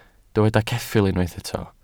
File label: "ceffyl", Welsh